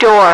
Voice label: "door", radio female